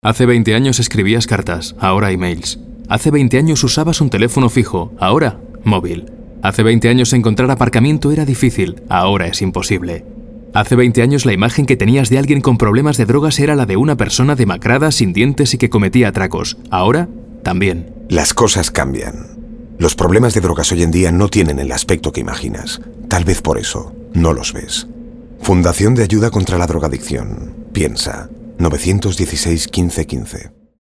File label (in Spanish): Campañas Castellano